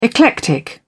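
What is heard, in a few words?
"eclectic", British English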